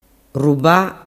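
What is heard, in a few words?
"ruba", Sicilian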